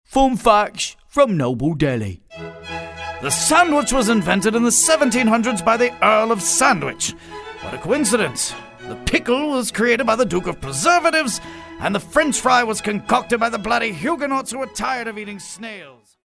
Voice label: british character

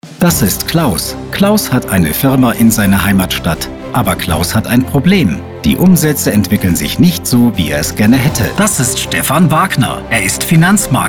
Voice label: Explainer

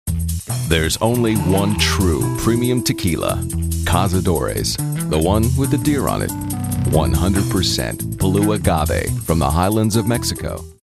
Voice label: cool and Jazzy

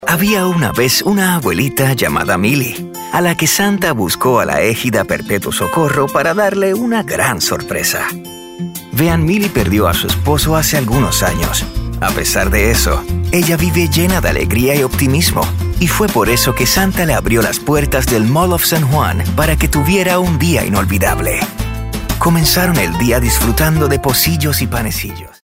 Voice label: Narration

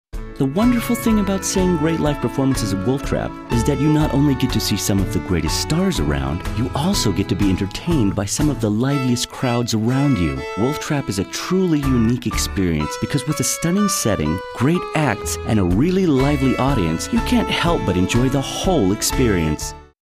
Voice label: soft spoken

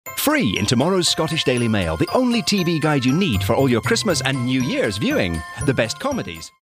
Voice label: friendly

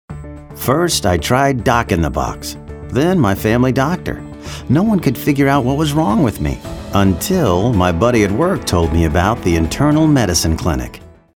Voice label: Guy Next Door Genuine

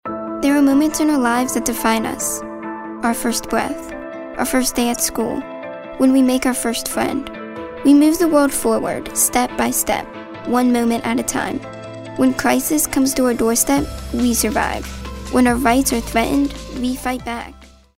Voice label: thoughtful

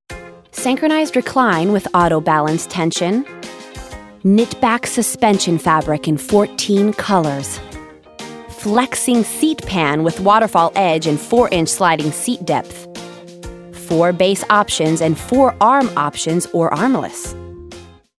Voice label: informative

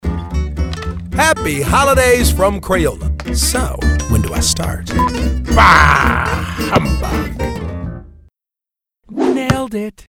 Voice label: TV Spot; Holiday Crayon

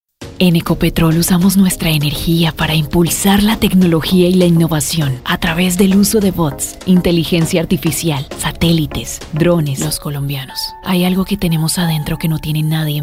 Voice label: Spanish Commercial Showcase

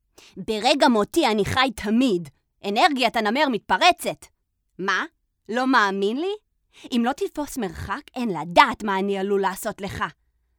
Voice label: Hebrew

